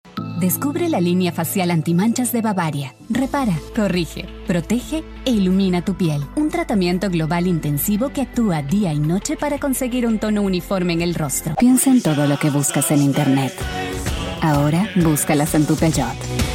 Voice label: spanish peruvian commercial

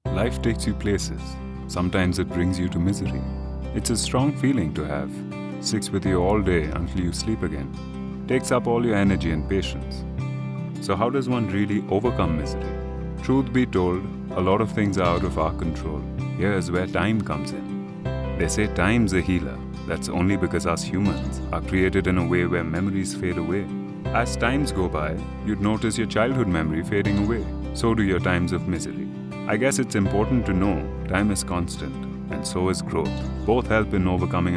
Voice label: english indian

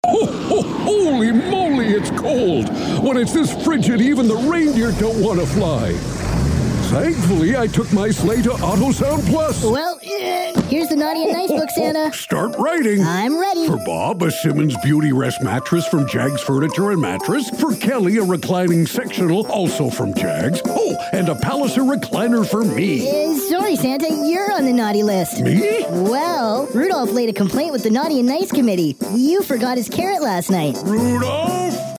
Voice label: english canadian others

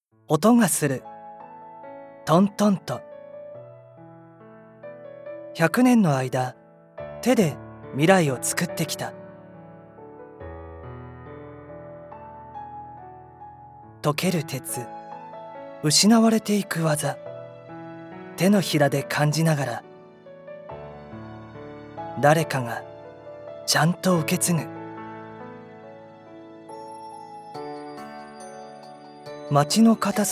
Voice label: japanese documentaries, japanese voice actors

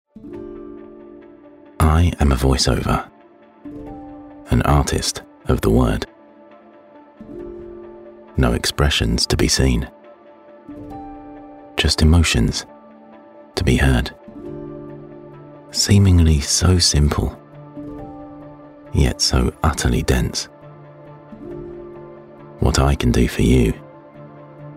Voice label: english british

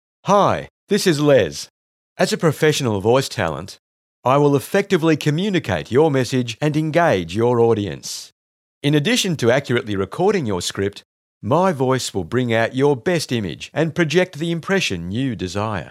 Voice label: english australian narration

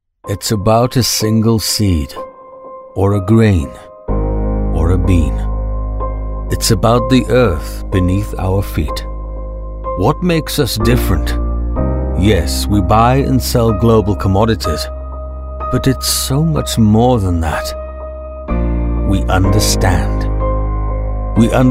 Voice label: english british documentaries

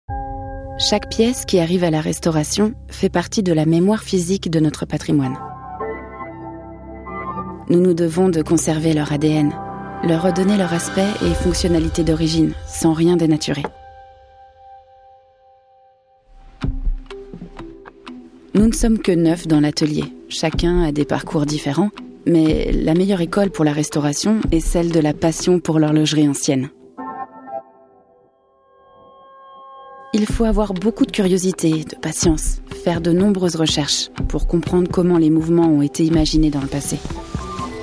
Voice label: french documentaries; French voice-over actress

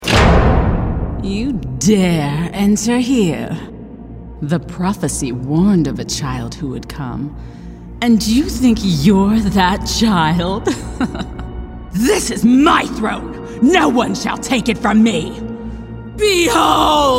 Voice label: english american video games

